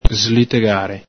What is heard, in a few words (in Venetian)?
"slitegare", Veneto